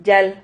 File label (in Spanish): "yal", Silabas